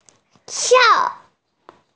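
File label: "shang4", Mandarin Chinese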